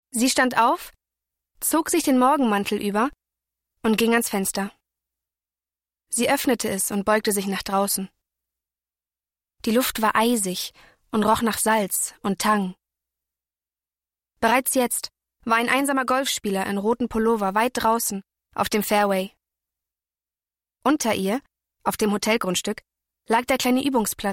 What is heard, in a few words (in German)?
erzaehlung; LOFT VOICES